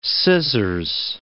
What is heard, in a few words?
"scissors", English